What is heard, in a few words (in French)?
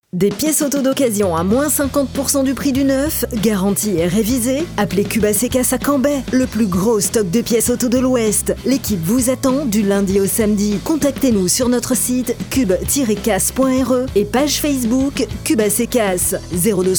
spot; CUB AC CASSE